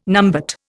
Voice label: "numbat", Indian